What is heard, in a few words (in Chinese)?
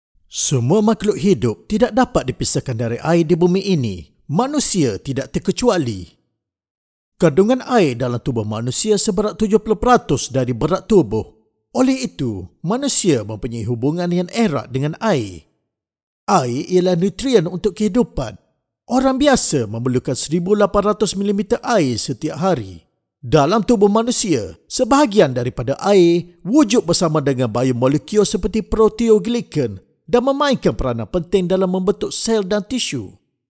马来语男